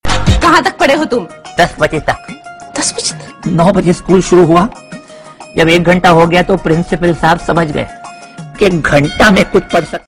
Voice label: Funny Hindi Comedy Dialogue; Dhamaal 2; Javed Jaferi